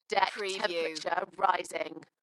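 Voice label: UK female, Dalekness, voice drop; Deck temperature rising; beanstalk audio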